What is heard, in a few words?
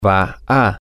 he is going to, spanish, M